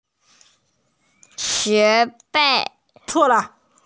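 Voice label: "xue2fei4" Mandarin Chinese, female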